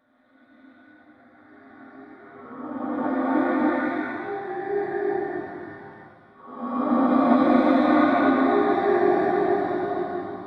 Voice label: paranoia voice; sonniss gdc

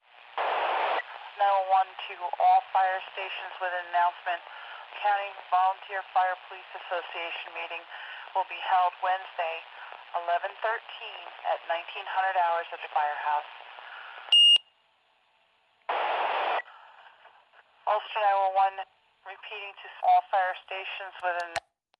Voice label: int emergency radio broadcast

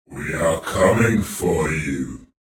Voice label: vocal ghost "we are coming for you"; sonniss gdc

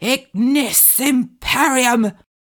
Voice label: commanding, incantations older female fire spells; ignis imperium; psfx

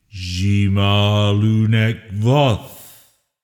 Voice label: incantation masculine reverb summon

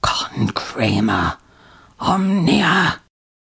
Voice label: whisper, incantations older female fire spells; psfx

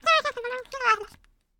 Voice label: cartoon voices female talking; sonniss gdc

